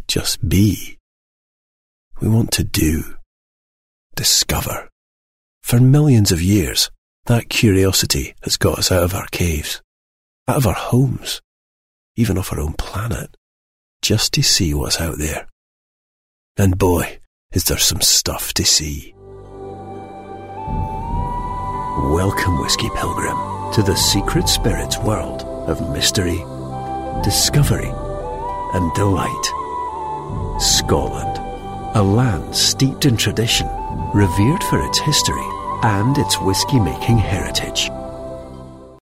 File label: scottish